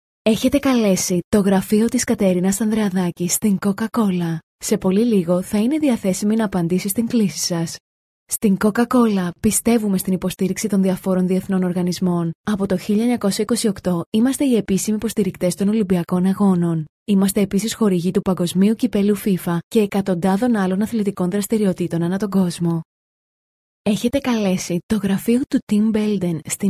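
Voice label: Greek, female, telecom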